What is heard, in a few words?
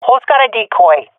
request decoy, swat fem; vo; agents; Source Model Packs; Counter Strike Model Pack; CS2